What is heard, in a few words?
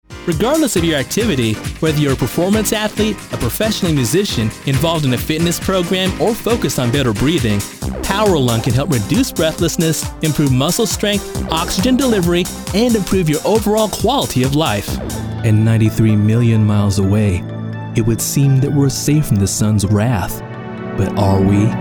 Narration